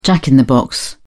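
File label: "jack-in-the-box", British English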